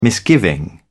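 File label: "misgiving", British English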